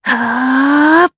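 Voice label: Vox Casting, Japanese; Talisha; kingsraid audio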